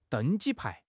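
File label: "deng1 ji1 pai2", Mandarin Chinese; HSK; HSK4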